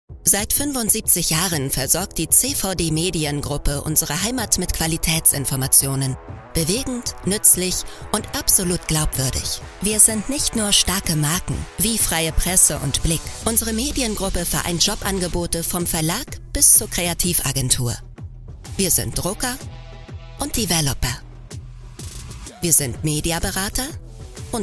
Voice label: german corporate videos

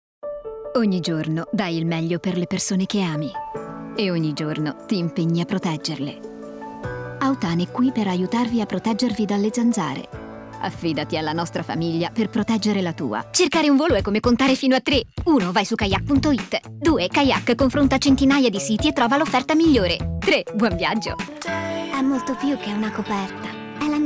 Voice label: italian commercial

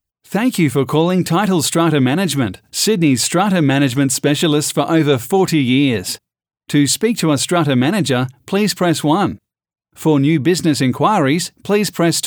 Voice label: english australian ivr

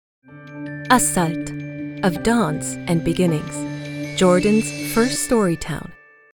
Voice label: english international commercial; Austria; Inglés (internacional); Alemán; Alemán ( Suiza)

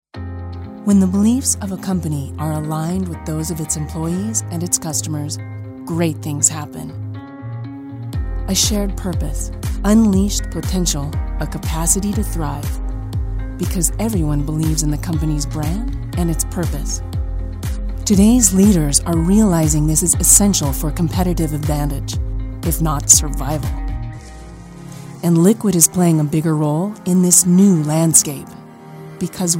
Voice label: english american corporate videos

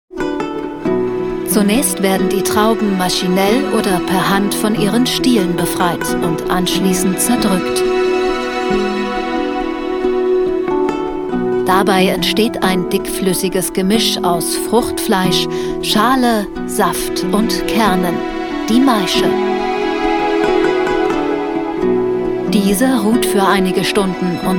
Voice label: german documentaries

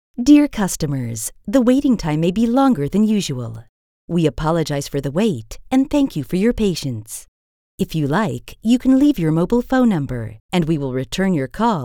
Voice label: english american ivr

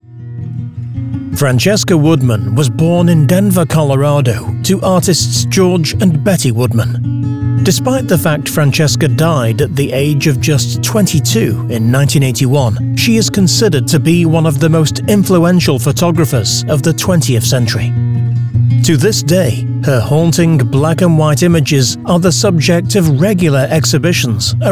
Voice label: english british documentaries

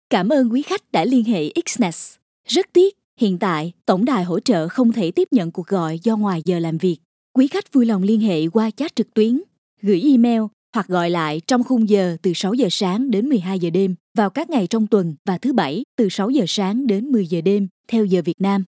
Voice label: vietnamese ivr

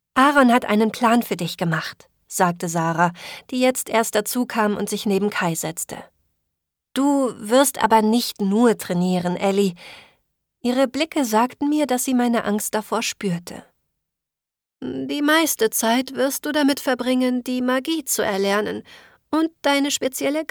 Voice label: german audiobooks

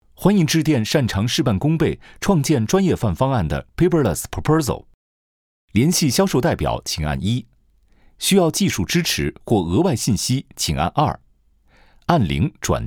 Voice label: chinese mandarin ivr

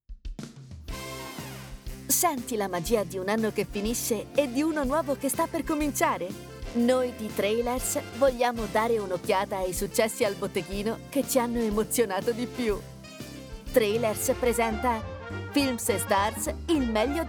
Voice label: italian tv shows

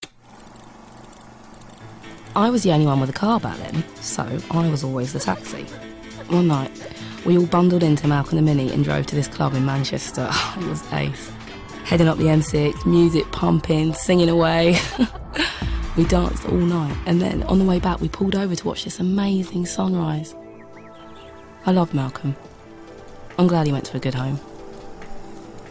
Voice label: english british automotive

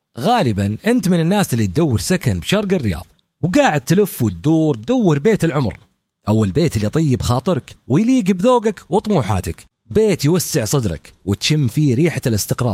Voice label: Arabic real estate

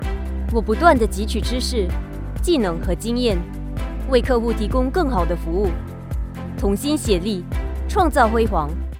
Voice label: chinese mandarin corporate videos

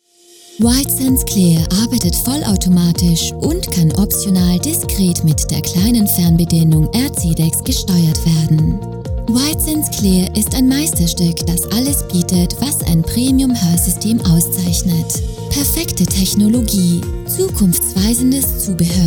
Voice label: german corporate videos

